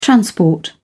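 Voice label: "transport", British English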